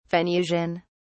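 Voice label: "venusian", British English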